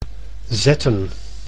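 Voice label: "zetten", Dutch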